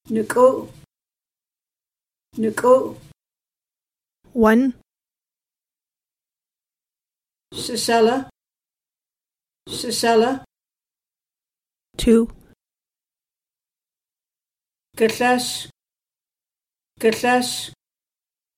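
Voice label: Numbers 1 10, secwepemc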